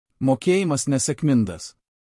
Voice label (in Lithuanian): LT PAYMENT UNSUCCESSFULL